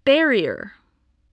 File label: "barrier", English